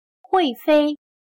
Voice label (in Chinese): "会飞", chino